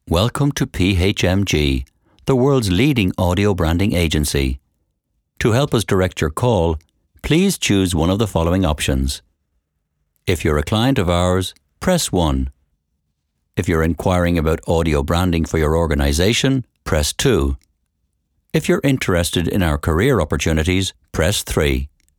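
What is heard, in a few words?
english irish interactive voice response; Warm, authentic Irish voice with depth, clarity and versatility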